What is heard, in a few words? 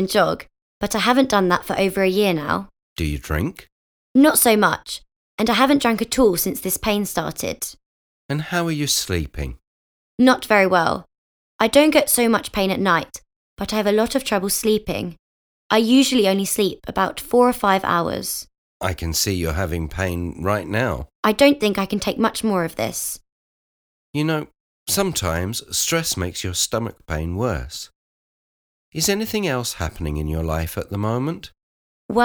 Conversation; Bedside Manner Beginner